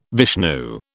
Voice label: "Vishnu", British